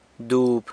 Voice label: "dob", San Lucas Quiavini; maguey